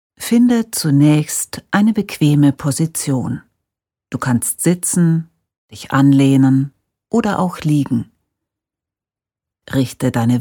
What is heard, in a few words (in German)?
Meditation, Ratgeber; CALM